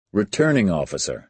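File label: "returning officer", American English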